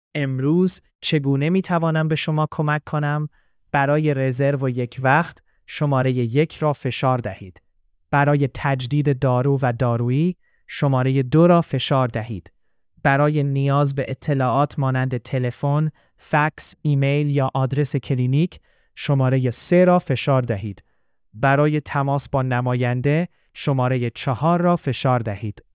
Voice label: question persian